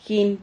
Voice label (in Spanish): "jin", Silabas